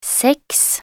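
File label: "seks", Norwegian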